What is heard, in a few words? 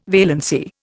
"valency", Indian